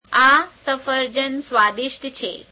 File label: gujarati, these apples are delicious; આ સફરજન સ્વાદિષ્ટ છે; [ā sepherejen se'veādeiṣe'ṭ chhe]